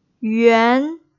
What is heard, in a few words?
"yuan2", Pinyin, Chinese